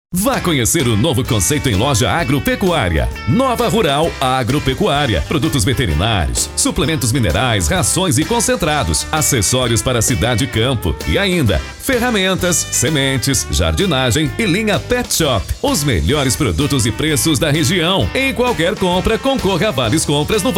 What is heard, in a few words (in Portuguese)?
institucional; Nova Rural